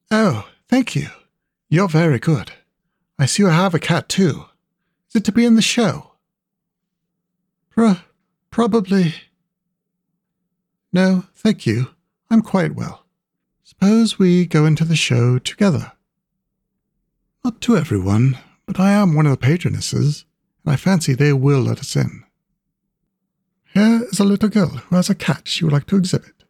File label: Female - British RP; "Homeliest Cat at The Show"